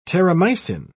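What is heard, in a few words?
"terramycin", English, British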